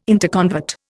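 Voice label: "interconvert", Indian English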